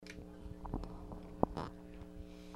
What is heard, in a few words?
vocoder test